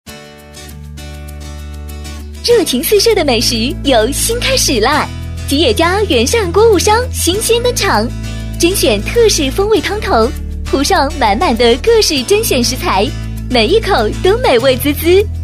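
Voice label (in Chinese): 女国大音; 吉野家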